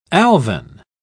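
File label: "alvine", British English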